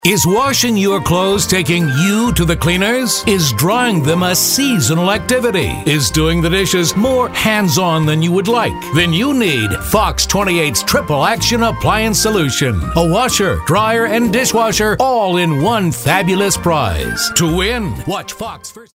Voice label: retro announcer